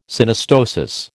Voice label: "Synostosis", American

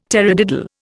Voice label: "tarradiddle", Indian

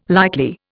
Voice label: "likely", Indian

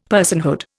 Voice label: "personhood", Indian; Dictionary

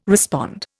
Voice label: "respond", Indian

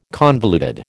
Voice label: "convoluted", American

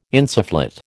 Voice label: "insufflate", American

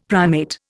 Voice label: "primate", Indian; Dictionary

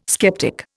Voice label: "sceptic", Indian

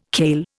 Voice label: "kale", Indian

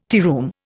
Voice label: "tearoom", Indian

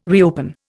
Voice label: "reopen", Indian

